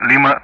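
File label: Speech Sound Russian Common Player Alphabet; Lima; DCS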